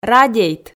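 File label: "радейт", Komi, female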